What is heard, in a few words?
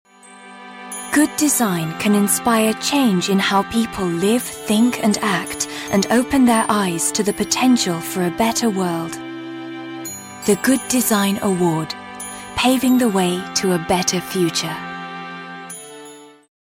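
narration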